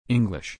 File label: English